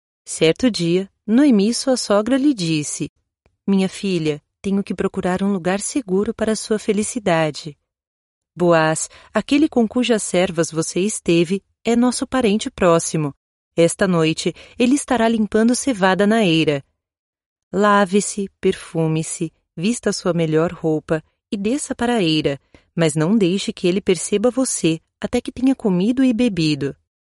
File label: portuguese brazilian narration